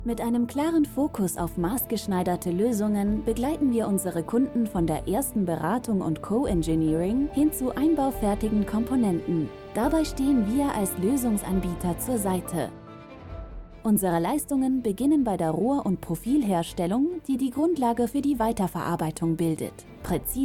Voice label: german explainer videos

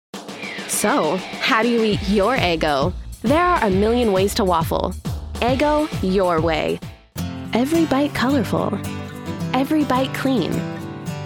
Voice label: english american commercial

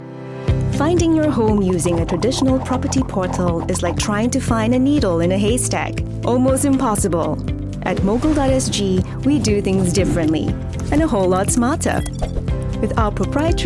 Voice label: english singapore real estate

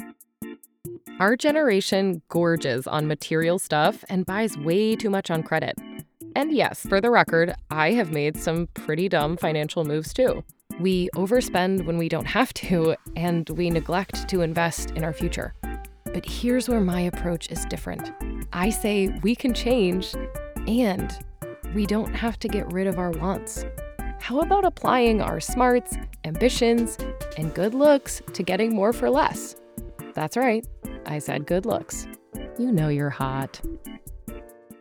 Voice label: english american explainer videos

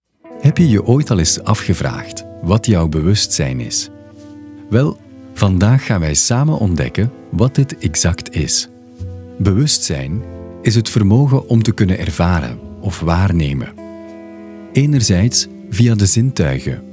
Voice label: flemish podcasts

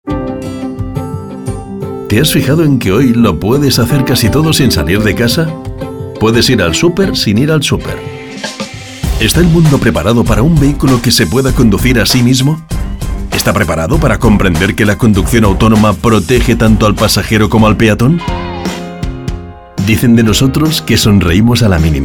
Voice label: spanish commercial

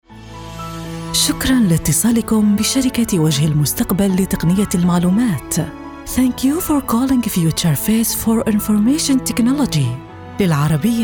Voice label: arabic ivr

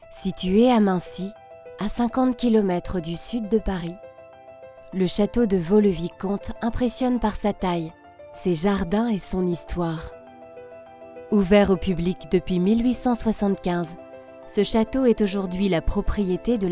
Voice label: French documentaries

